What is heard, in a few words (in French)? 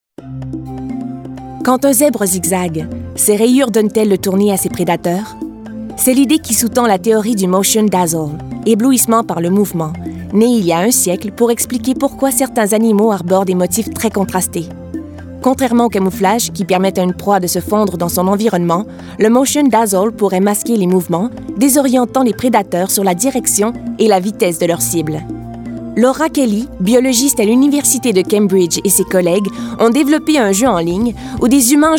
Demo voix